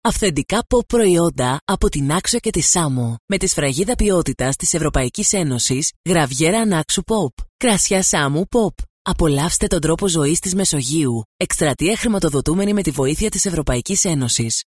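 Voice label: Greek Female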